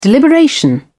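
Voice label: "deliberation", British English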